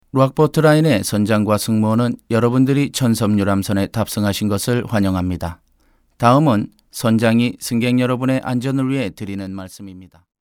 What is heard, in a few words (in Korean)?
korean IVR; rockport